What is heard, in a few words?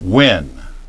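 "win", English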